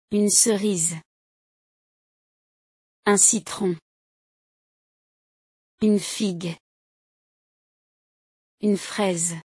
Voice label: French vocabulary fruits audio pronunciation

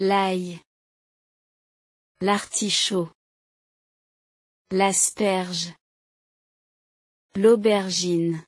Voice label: french online course vegetables pronounciation

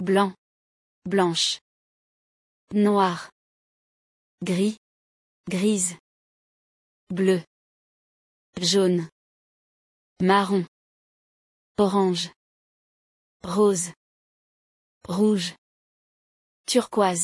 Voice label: french colours pronunciation audio

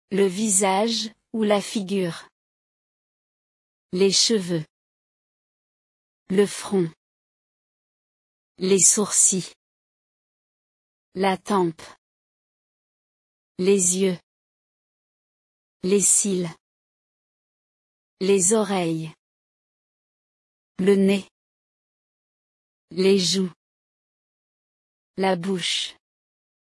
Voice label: physical description french pronunciation audio

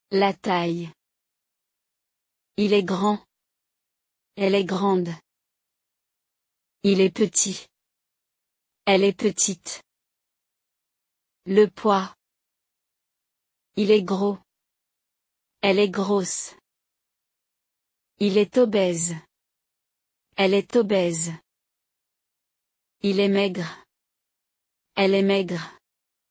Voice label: french physical appearance pronunciation audio

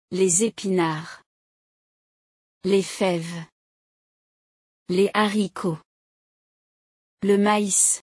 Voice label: vegetables in french

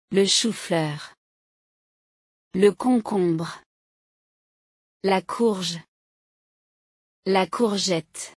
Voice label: French vocabulary vegetables

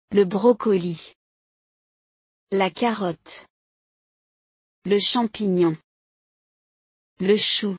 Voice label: French vocabulary vegetables audio pronunciation

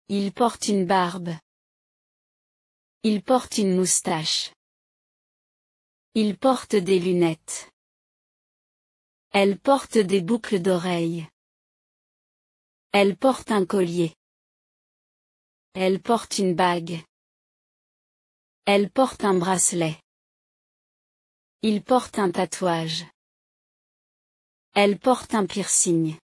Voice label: describe yourself in french

